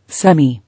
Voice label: "Semi", English